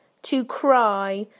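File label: "to cry", British English; PictureDictionary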